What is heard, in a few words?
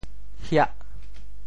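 "hiah8", Teochew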